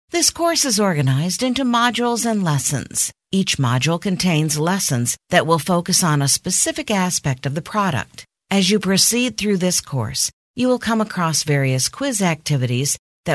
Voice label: E-Learning course instructions